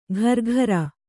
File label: "ಘರ್ಘರ", Kannada